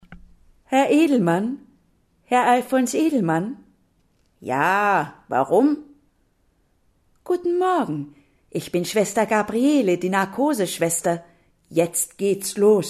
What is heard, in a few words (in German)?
Hörspiel beide Stimmen